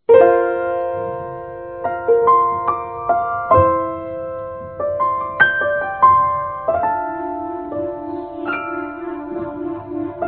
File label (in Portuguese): Saudades de Ex Feminino